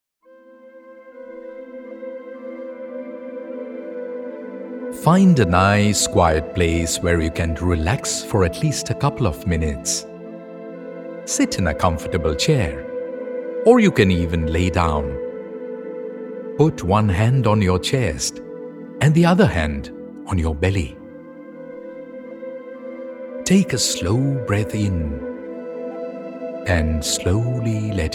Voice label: English meditation